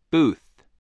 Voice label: "booth", spelling